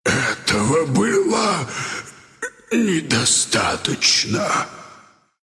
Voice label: death ru; dota2